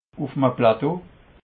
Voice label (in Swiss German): "plateau", alsace